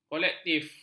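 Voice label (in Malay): "kolektif", Malay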